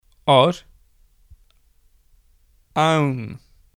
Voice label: gaelic tree; Scottish Gaelic; Aibidil na Gàidhlig